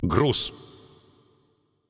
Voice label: Announcer Russian Mode Payload; paladins

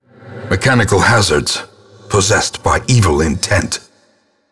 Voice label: Narration trap; darkestdungeon

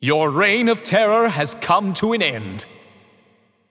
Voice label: Announcer Town Crier Spree End

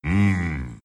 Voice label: wraith happy; skeleton king

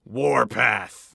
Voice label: Announcer Game Mode Warpath; Paladins

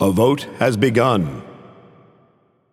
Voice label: Announcer Voting Begun

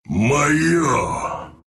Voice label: deny, ru; Wraith; dota2

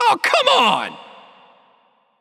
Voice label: Announcer Match End Defeat; Paladins

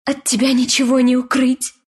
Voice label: death, ru; Dota 2

